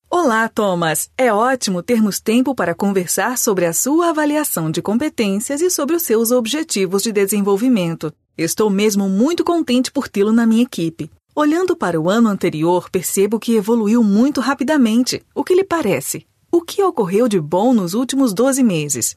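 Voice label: Brazilian Portuguese, female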